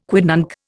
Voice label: "quidnunc", Indian